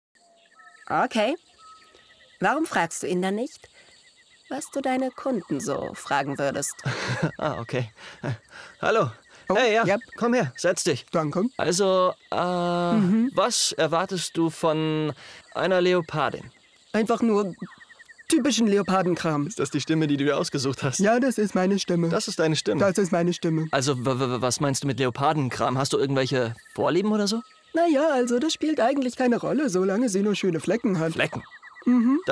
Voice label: Synchron Tierpark Lachen

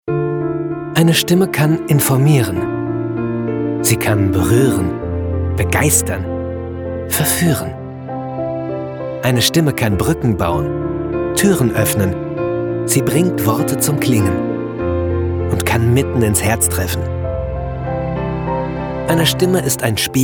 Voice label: werbung kurz; Sprecher in Berlin; Muttersprache Deutsch

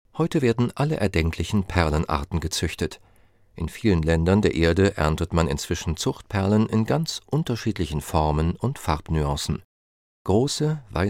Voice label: Deutsch Englisch

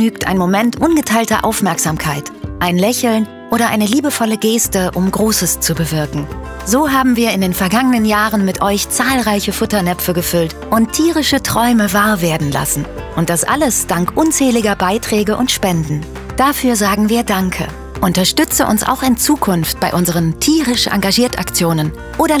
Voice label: Imagefilm Tiere; Muttersprache Deutsch; Sprecher in Köln

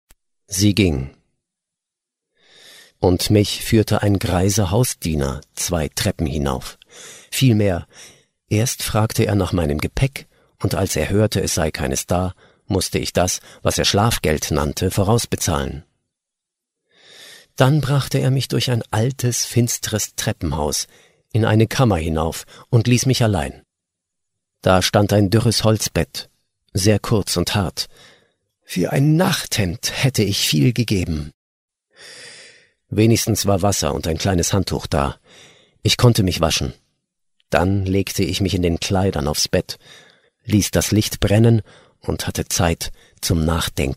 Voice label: hoerbuch; der steppenwolf

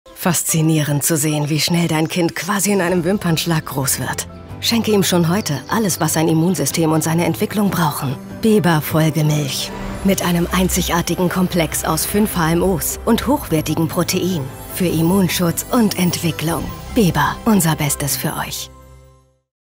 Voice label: webspot; beba

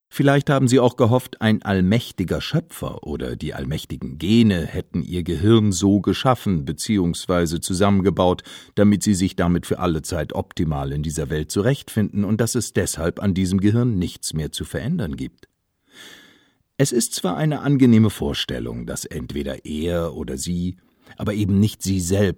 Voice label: hoerbuch mundart

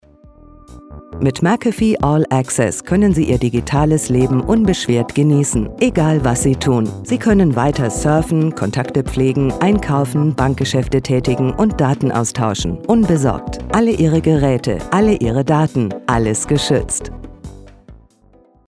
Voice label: produktfilm; mcafee

